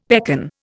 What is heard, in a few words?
"pekan", Indian; Dictionary